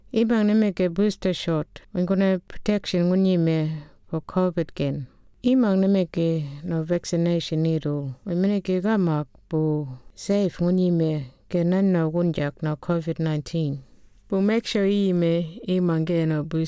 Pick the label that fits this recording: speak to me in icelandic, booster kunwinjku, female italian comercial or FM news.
booster kunwinjku